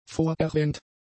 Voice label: "vorerwähnt", German; adjectives